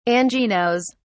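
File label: "anginose", British English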